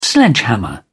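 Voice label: "sledgehammer", British English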